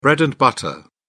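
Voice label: "bread and butter", British English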